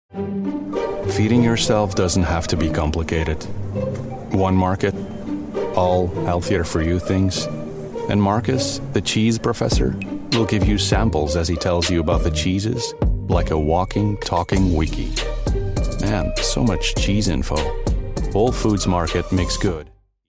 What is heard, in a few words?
english commercial; wholefoods market